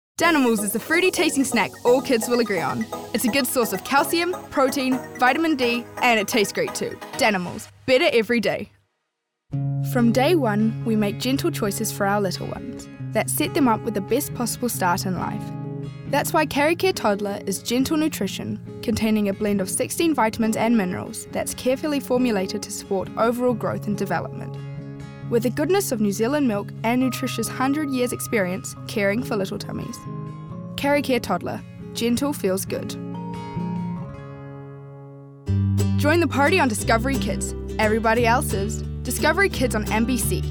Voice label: VO Reel; Bigmouth

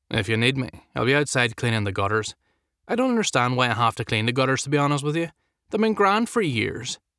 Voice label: Belfast, Irish

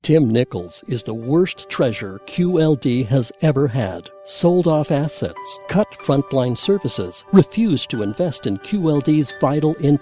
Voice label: Political, en-US

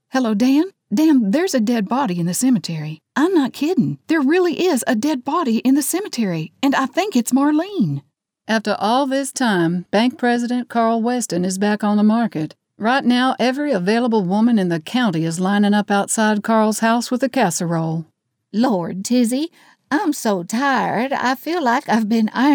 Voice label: Southern Accents

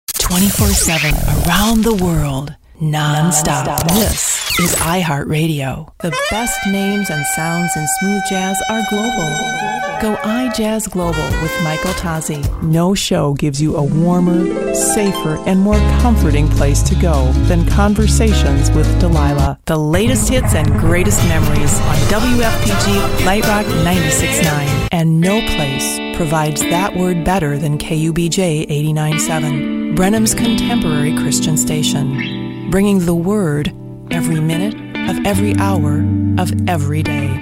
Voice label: Radio Imaging, en-GB

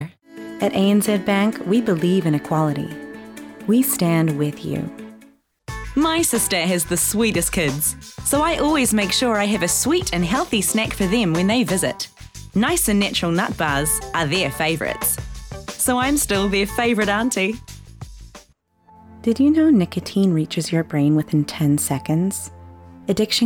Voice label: Voice Actor; BigMouth Voices

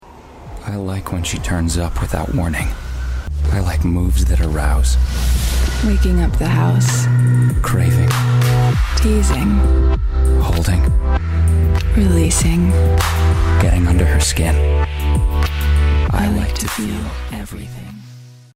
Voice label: HUSKY, en-NZ

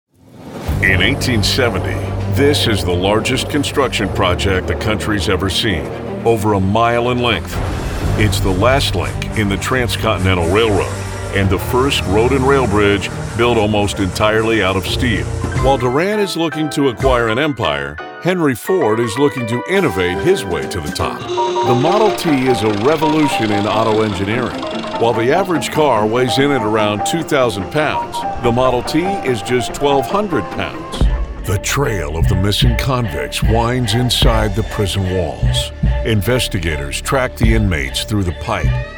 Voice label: In-Show, en-AU; Long

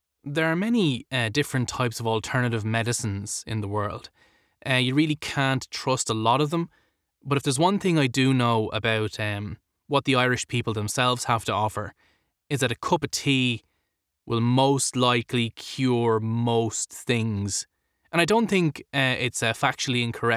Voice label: Accent Sample Irish

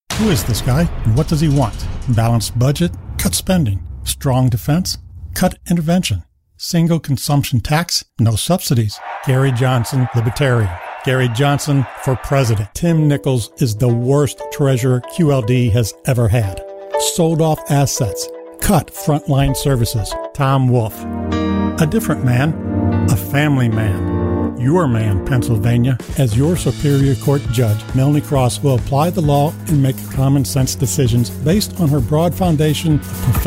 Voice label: Political, en-GB

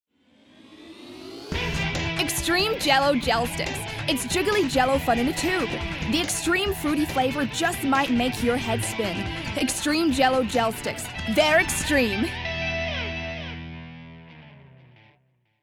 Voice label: US Accent

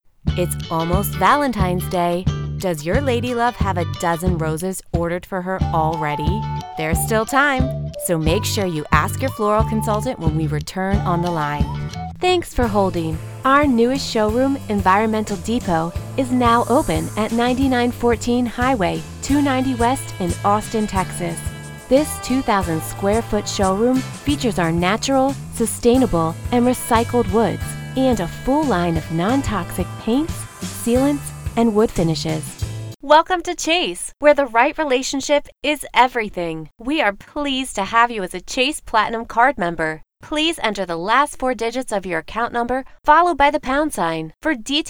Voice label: Telephone IVR, en-NZ

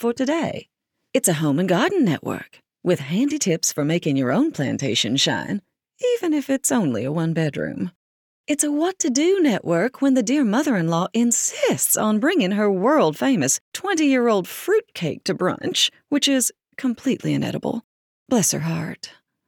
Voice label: Southern US VO

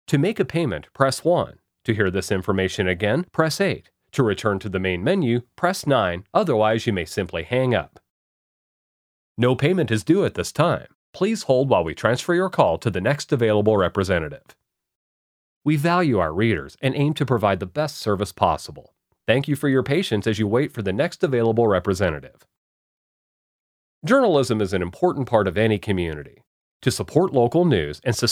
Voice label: Phone

